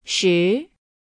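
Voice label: "shi", Chinese; thap 10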